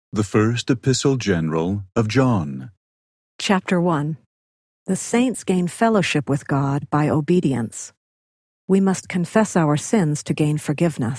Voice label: male voice, eng; john 01; the new testament